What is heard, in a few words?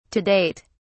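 "to date", British English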